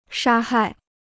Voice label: "杀害", Chinese